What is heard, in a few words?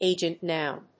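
"agent noun", English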